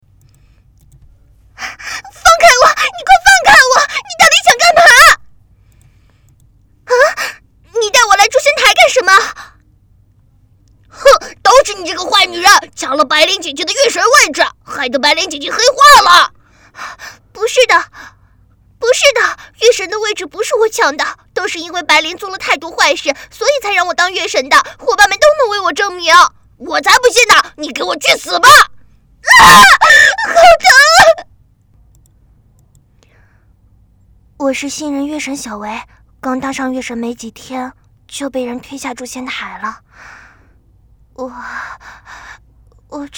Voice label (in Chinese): 少女